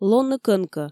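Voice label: "лоны кӧнкӧ", Komi, female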